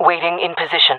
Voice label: in position, jungle fem; vo